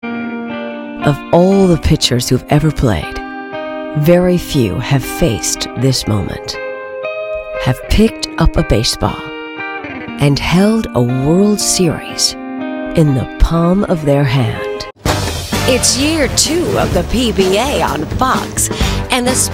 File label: Promos